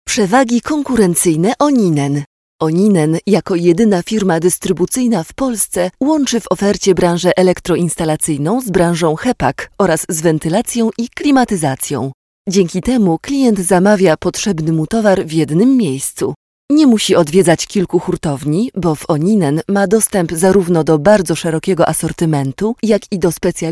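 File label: Polish, female, narration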